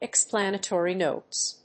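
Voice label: "Explanatory Notes", English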